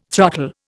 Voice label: "throttle", Indian